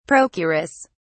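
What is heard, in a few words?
"procuress", English, British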